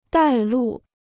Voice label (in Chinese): "带路", Chinese